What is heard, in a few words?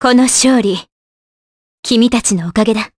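Vox Victory, voices, Japanese; Scarlet; kingsraid audio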